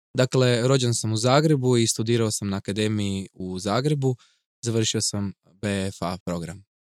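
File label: Croatian, Narrative; GoLocalise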